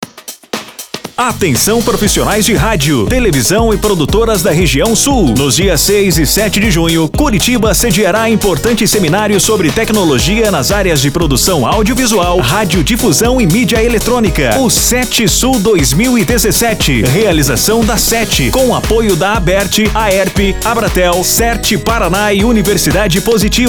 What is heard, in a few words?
SPOT